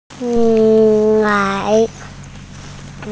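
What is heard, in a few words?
"lai2", Mandarin Chinese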